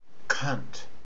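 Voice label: "cunt", Australian English; pronunciations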